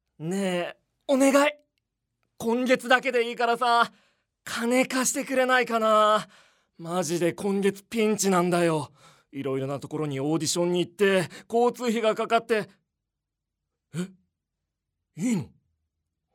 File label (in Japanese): セリフ, 俳優（男性）